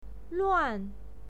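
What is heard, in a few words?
"luan", Mandarin Chinese, fourth tone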